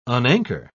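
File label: "unanchor", British English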